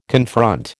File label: "confront", American